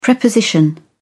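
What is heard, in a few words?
"preposition", British English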